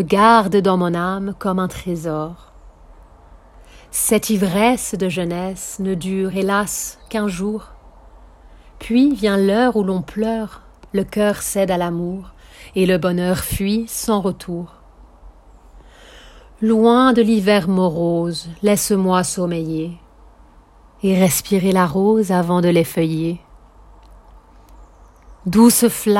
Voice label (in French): Je veux vivre conversational speed; ipa text recording; Gounod